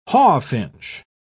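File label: "hawfinch", British English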